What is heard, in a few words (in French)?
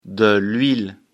"huile", lecture fr